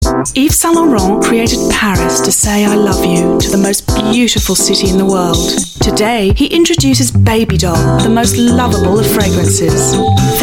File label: UK English; Yves Saint Laurel